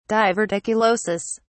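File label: "diverticulosis", British English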